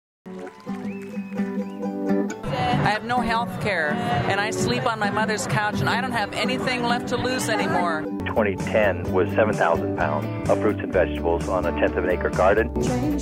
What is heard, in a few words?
promo; Radio Ecoshock